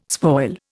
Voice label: "spoil", Indian